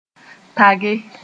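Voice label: "tage", Mooré; put the foot on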